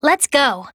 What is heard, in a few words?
VO en